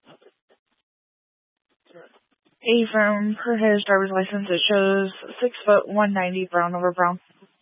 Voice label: Police Department Dispatch, scanner